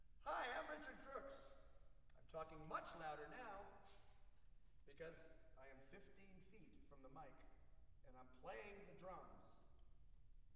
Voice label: Percussion Imaging Tests, Drum 15 Feet, Live Studio: Announcement